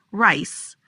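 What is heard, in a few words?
"Rice", pronunciation; food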